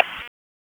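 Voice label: Raleigh Police North District Tactical, p25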